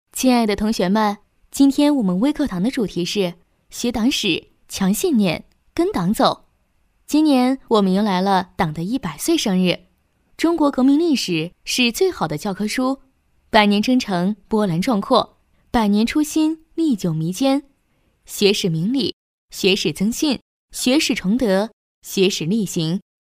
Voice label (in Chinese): 女 课件微课堂 学党史微课堂少先队; 甜美亲切